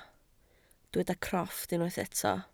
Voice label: "crofft", Welsh